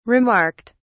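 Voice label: "remarked", British English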